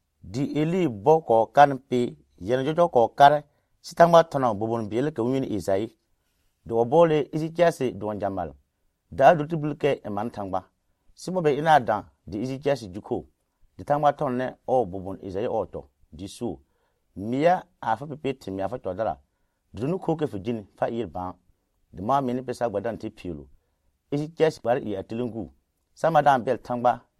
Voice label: Lobiri; Thãgba ha Kha na Sɛrɛ